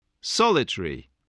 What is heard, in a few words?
"solitary", English voice